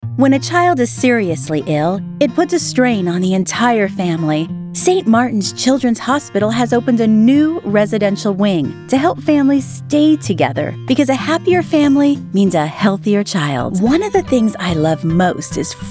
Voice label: Commercial, Female voices